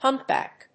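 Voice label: "humpback", English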